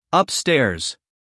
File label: "upstairs", us male; everyone-can-use-english